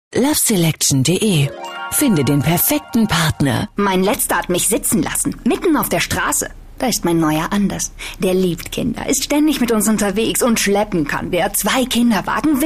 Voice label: Schauspiel Dynamisch

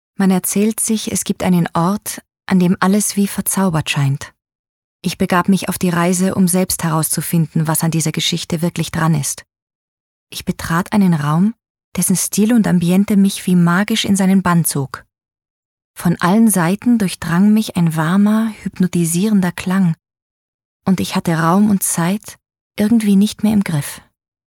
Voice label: Schauspiel Sanft

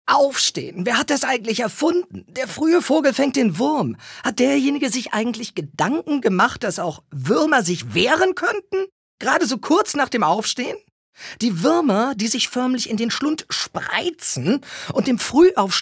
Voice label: Witzig Frech

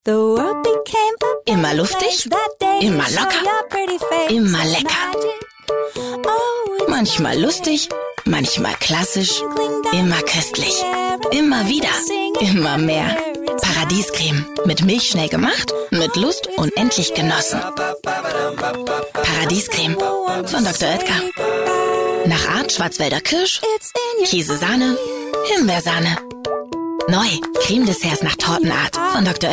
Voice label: Werbung Sanft

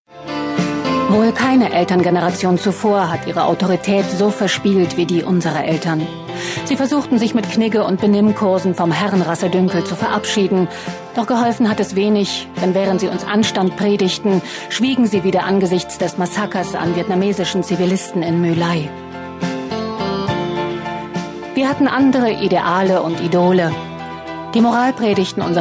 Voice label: Dynamisch

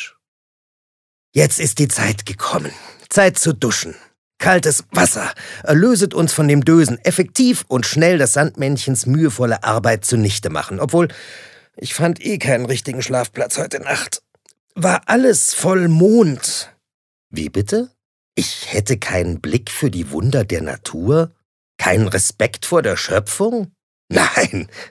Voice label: Schauspiel Variabel

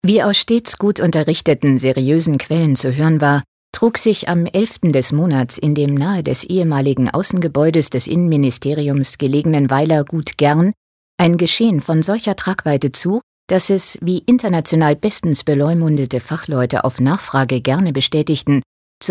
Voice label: Kommentar Neutral

